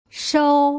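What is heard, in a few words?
"shou", Putonghua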